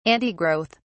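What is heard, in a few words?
"antigrowth", British English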